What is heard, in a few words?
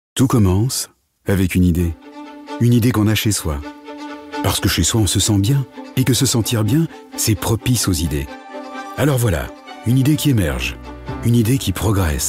showreel video corporate